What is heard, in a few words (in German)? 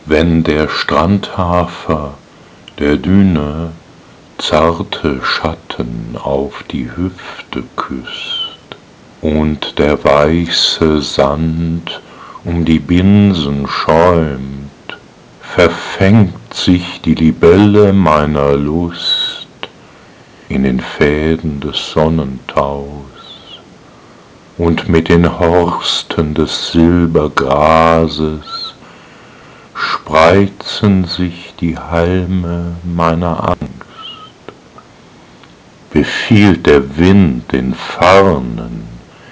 Die weibliche Stimme, lyrische Gedichte